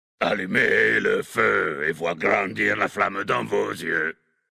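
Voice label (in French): French Homme Flirt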